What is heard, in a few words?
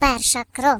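"First blood", British; hedgewars; Default uk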